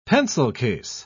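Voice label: "pencil-case", English, British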